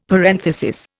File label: "parenthesis", Indian; Dictionary